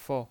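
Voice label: "fou" Mandarin Chinese, neutral tone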